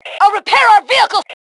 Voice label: Self Repair Vehicle, Female